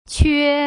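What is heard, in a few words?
"que", Putonghua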